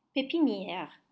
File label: "pépinière", French; nursery